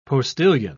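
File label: "postillion", British English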